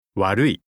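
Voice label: "warui", Japanese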